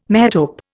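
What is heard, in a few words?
"metope", English, Indian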